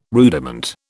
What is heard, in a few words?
"rudiment", British